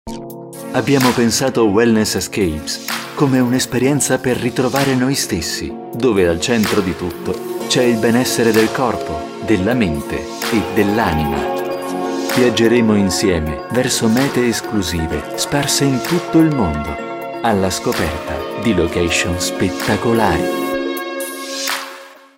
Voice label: Web Spot Italian